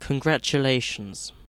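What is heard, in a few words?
"congratulations", British English; pronunciations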